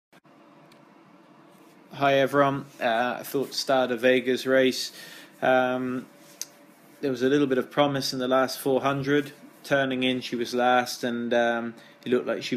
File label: voice note